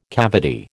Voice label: "cavity", American